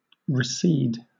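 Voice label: "recede", British English; pronunciations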